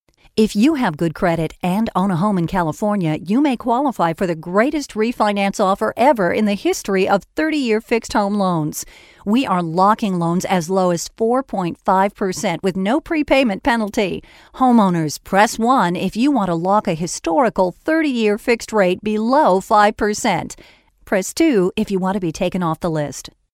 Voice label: Upbeat Marketing Phone Blast